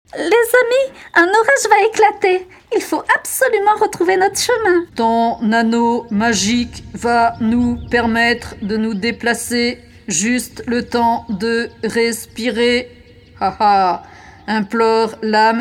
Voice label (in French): dessins animes voix; 5 voix